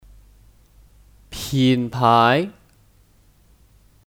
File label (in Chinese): "品牌", Pǐnpái; Kata benda; Brand/ Merek